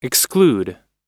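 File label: "exclude", English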